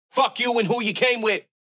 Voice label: Fuck You And Who You Came With - 140 BPM, Dubstep, Vocals; Cymatics; Dubstep Starter Pack